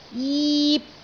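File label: "jip3", Cantonese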